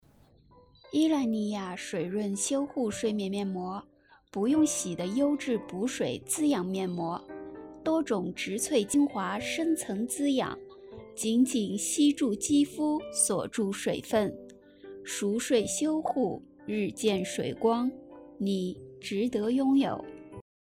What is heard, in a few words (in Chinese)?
广告